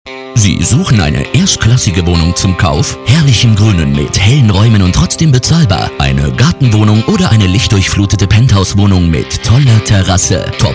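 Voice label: German, male, explainer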